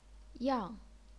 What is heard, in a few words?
"yang4", chinese pinyin